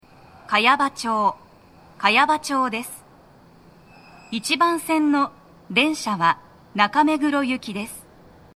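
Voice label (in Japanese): 茅場町駅, 1番線 到着放送, 女声, TOA天井型での収録です; 1番線 中目黒方面; 放送は「中目黒行」です。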